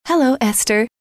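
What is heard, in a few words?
"hello", female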